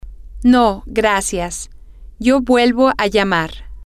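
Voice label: Spanish, female; no thank you I will call back later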